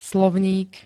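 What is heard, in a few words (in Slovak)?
"slovník", Slovak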